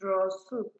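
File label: "draw soup", Nigerian Pidgin, female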